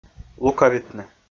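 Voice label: "лукавитны", Komi, male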